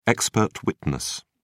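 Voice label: "expert witness", English, British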